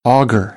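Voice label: "augur", American English; AmericanBritish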